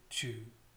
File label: "chew", aspiration